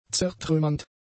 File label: "zertrümmernd", German; adjectives